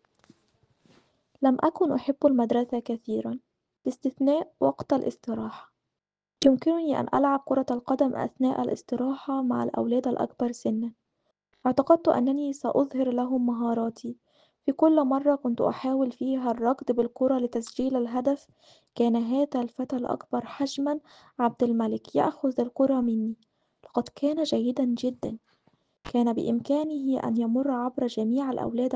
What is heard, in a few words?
Arabic